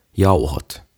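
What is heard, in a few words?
"Jauhot", "Flour", Finnish, English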